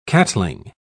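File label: "catling", British English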